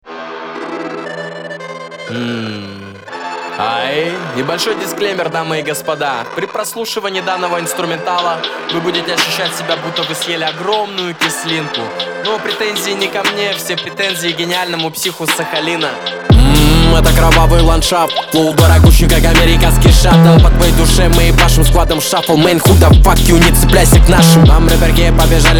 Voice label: freestyle; russian